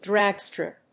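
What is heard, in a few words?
"drag strip", English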